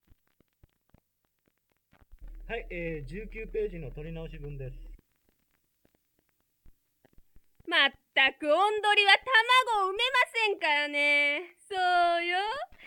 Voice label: Dialog; Silhouette; Den Lille Pige Med Svovlstikkerne; Tokyo Jidougekijo